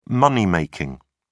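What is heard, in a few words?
"money making", English, British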